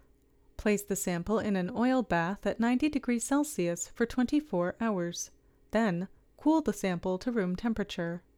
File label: Voiceover